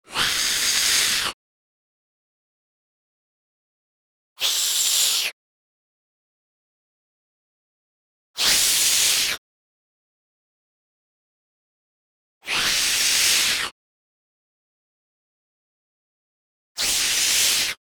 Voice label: Vampire Hiss

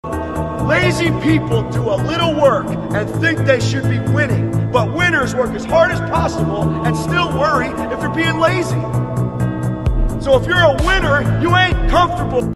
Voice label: sports motivational; جملات انگیزشی ورزشی خارجی

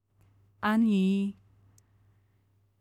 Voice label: "Ali", دختر; دختر که میگه علی

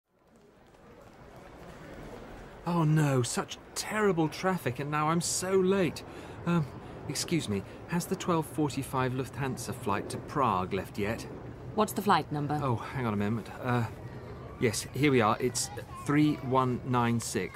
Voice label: Airport Announcement English

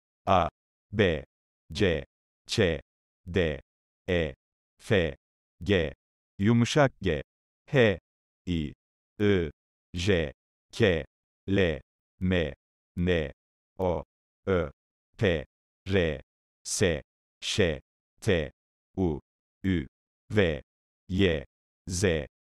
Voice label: Turkish language

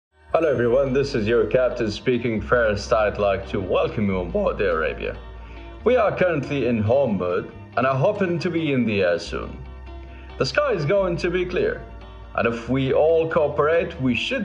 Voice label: Pilot conversation; مکالمه خلبان با مسافران

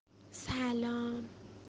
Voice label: "salam"

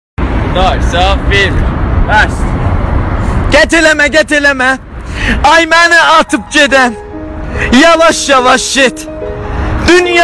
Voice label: دکلمه ترکی

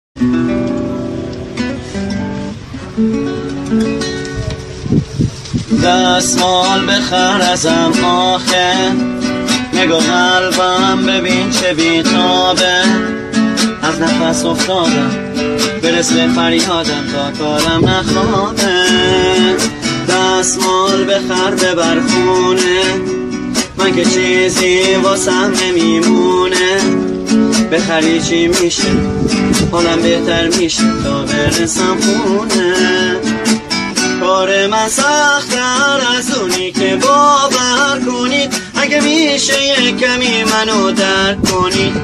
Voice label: salesman voice

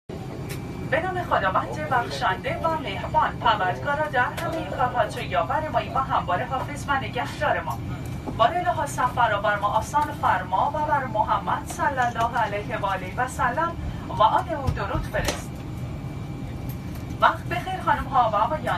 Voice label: stewardess sound

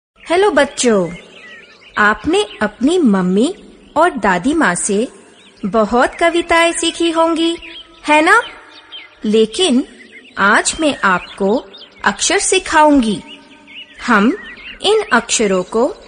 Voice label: Hindi; زبان هندی

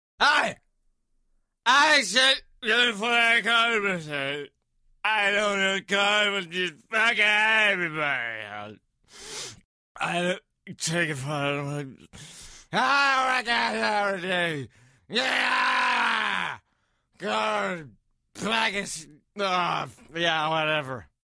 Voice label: mumbling

